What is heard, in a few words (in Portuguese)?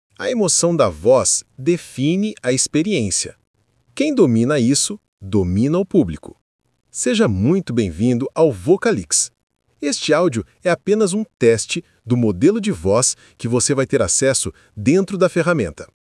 Narração Motivacional